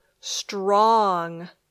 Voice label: "strong", English

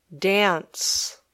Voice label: "dance", English

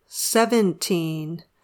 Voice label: "seventeen", English

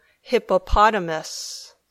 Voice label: "hippopotamus", English

